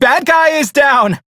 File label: Crew Enemy Defeat, Male; Dialog; Warframe; Railjack Crew; Perrin Sequence